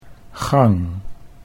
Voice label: "gang", Dutch